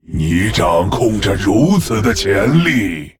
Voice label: Male Lich Start; Arthas; zh